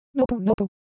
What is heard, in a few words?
Japanese, Ideo Phones; Shape